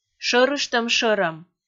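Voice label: "шӧрыштӧм шӧрӧм", Komi, female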